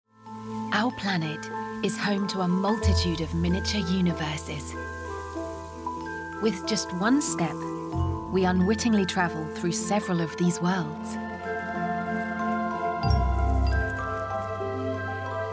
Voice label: butterfly documentary

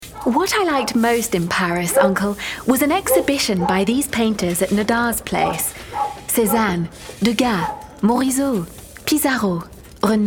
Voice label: audioguide museum acting

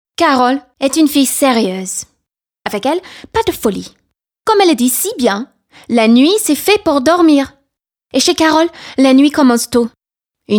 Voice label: francais; sncf

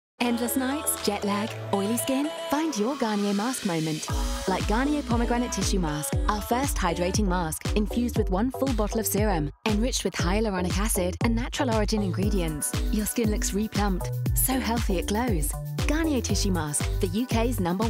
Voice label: tissue mask fresh and energetic; garnier

